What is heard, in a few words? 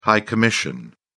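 "high commission", English, British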